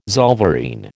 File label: "zollverein", American